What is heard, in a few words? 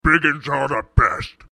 orc